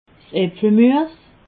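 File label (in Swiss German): "apfel muess", Alsatian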